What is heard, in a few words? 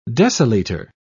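"deciliter", English, British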